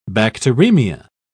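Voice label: "bacteremia", British English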